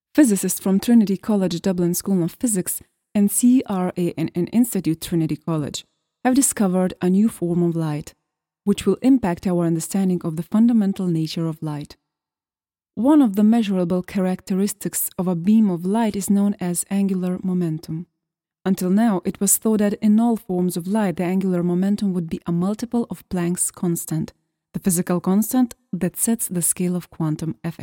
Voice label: voice over

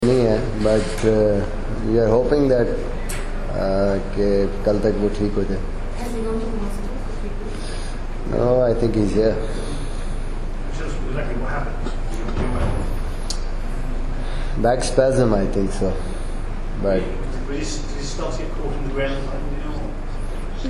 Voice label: press conference; misbah ul haq at sheikh zayed cricket stadium abu dhabi

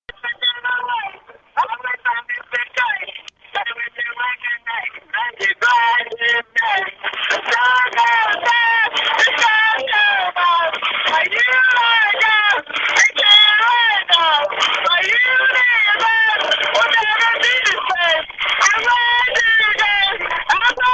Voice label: answer phone message

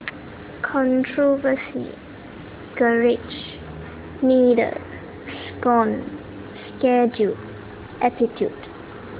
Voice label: singapore female

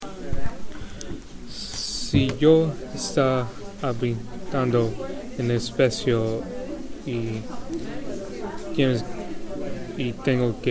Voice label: spanish presentational

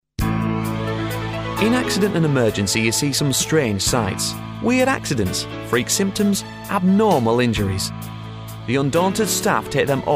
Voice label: bizarre er documentary narration

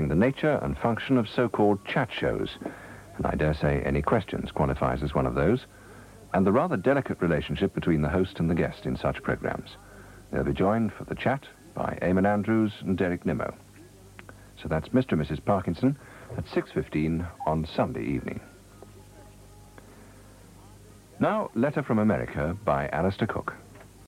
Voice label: radio 4 continuity announcement; after any questions

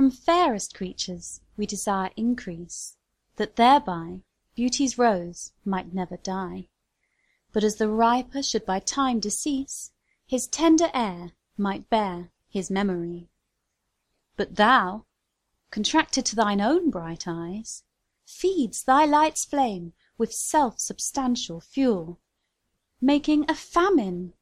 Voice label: read aloud, sonnet; Sonnet 1; William Shakespeare

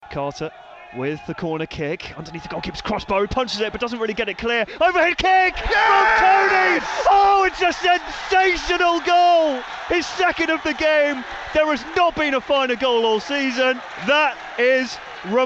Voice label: commentary of third goal; ntfc; dagenham redbridge; bicycle kick

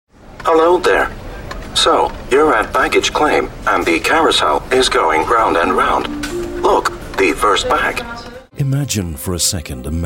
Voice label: voice over collage

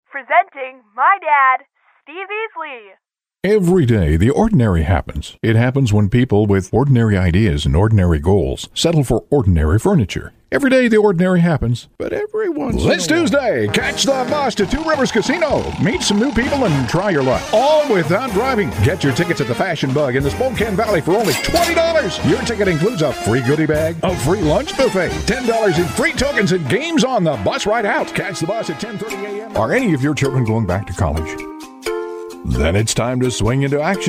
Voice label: commercial mix

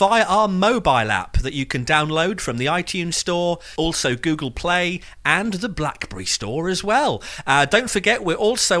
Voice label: radio imaging; europe radio

